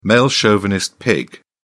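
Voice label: "male chauvinist", British English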